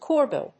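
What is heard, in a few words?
"choirboy", English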